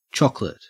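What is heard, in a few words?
"chocolate" Australian English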